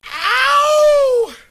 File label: ow male goofy; ooh ahh; ow ouch